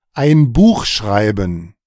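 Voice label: "ein Buch schreiben", German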